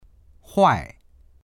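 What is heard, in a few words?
"huai4", Mandarin Chinese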